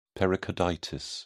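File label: "pericarditis", British English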